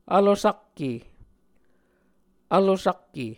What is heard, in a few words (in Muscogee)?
"vlosakke", Muscogee; Mvskoke Words with Repeated Consonants; Martin, Jack B., and Margaret McKane Mauldin. 2023. A Dictionary of Creek/Muskogee